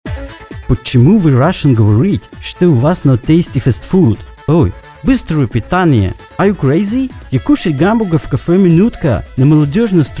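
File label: American Englishman voice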